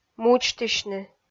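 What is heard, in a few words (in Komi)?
"мудзтысьны", Komi, female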